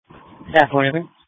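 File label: Police Patrol (Dispatch)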